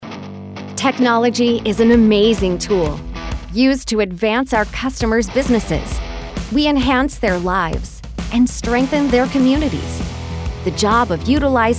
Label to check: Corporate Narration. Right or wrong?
Right